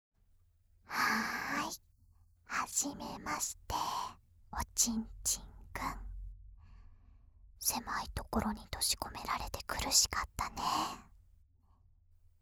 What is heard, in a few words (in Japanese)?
カウントダウンなし, ASMR; アプリミュート